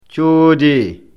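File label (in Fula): "coodee", Fula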